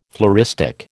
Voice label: "floristic", American

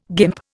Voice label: "guimp", Indian

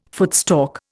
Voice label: "footstalk", Indian

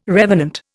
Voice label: "revenant", Indian

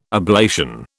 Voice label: "ablation", British

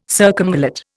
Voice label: "circumvallate", Indian

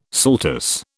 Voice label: "saltus", British

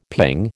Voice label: "ping", American

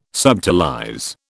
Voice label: "subtilize", British

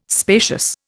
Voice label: "spacious", Indian

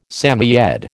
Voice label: "Samoyed", American

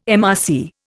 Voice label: "MRC", Indian

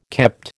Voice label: "kept", American

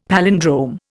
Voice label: "palindrome", Indian; Dictionary

